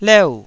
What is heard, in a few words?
"leu4", Taiwanese syllable